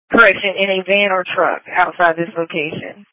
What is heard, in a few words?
Police Patrol 1 (Dispatch)